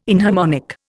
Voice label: "inharmonic", Indian